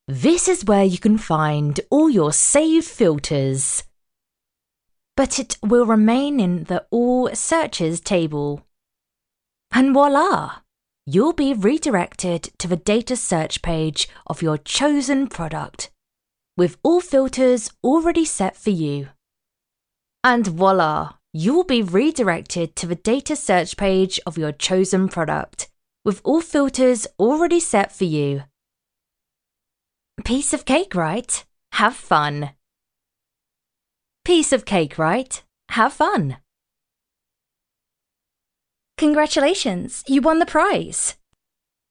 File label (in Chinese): 外籍女英 外语 英式英语